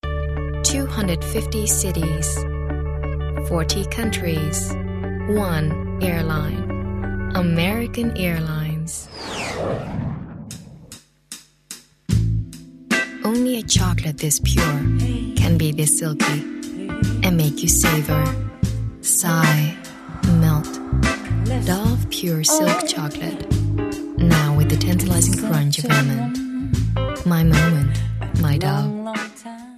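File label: Dutch English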